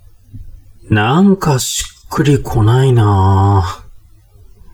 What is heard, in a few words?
man